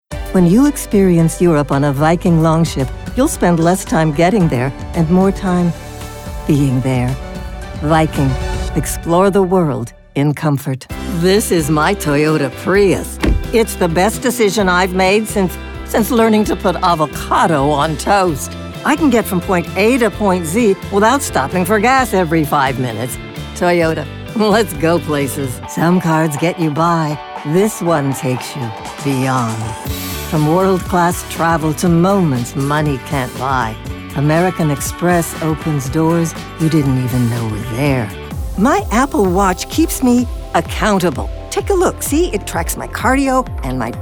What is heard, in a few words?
VO Commercial